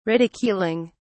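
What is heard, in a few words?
"ridiculing", British English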